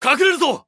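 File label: Japanese Neutral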